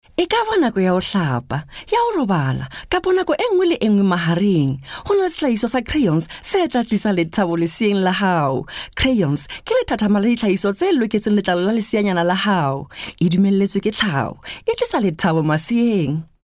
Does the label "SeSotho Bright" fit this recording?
Yes